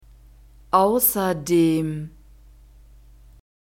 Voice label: "außerdem", German

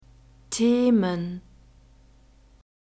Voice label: "themen", German

